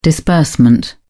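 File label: "disbursement", British English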